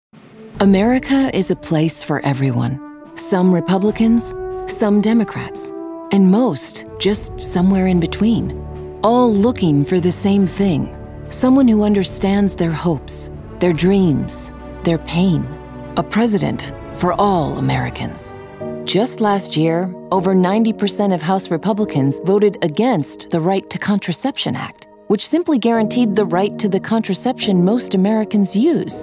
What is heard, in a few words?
women political; Raspy, conversational, sexy, professional, and authoritative.